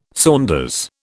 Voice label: "saunders", British

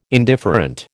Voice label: "indifferent", American

